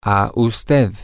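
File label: to you, spanish, male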